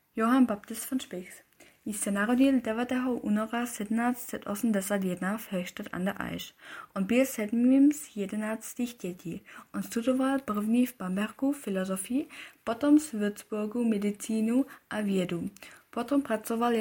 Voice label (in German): tschechisch; Das Leben unseres Namensgeber in verschiedenen Sprachen und Dialekten.